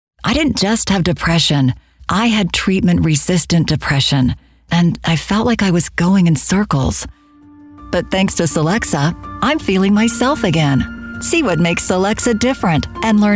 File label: Medical Narration Demo